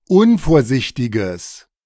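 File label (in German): "unvorsichtiges", German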